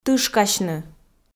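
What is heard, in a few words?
"тышкасьны", Komi, female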